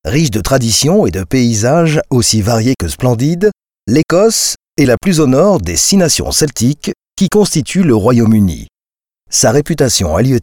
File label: french documentaries